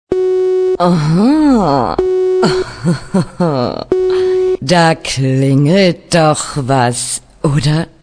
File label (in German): da klingelt doch was, ringtones